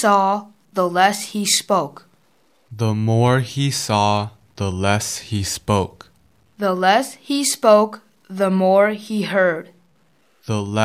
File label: a wise old owl, reciting poems; buty poems